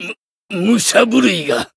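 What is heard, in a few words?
encount fear, Japanese; cyrus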